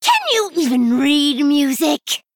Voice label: Female Gnome; Rin; Mission8

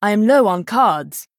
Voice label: Female Night Elf Low Cards; Elise

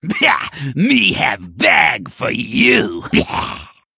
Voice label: Male Gnoll Play

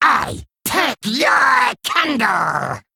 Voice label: Male Kobold

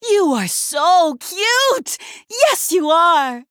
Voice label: Female Night Elf Play

